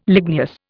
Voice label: "ligneous", Indian